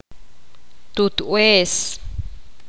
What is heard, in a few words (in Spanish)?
poqomam madre